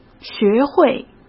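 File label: "学会", Mandarin Chinese; chinese-flashcards; hsk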